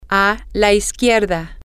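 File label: to The Left, Spanish, female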